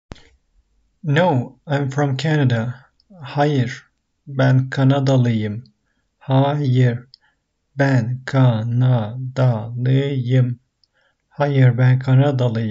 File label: no I am from canada, Turkish; the talking turkish phrasebook